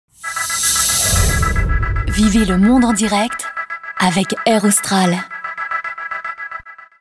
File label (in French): voix off billboard; voyage; air austral; chaine voyage